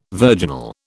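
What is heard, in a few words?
"virginal", British English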